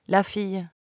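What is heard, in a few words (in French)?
"la fille" French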